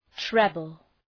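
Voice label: "treble", English; {‘trebəl}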